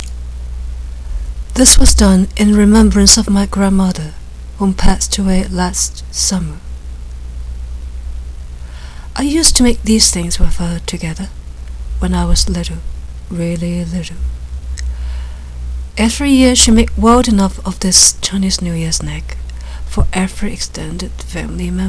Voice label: narrative